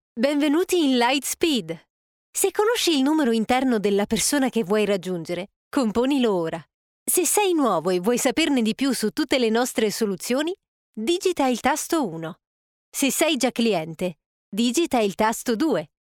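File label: italian ivr, Female; voice actor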